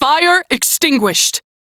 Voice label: Crew Fix Fire, Female; en; Warframe; Railjack Crew; New Loka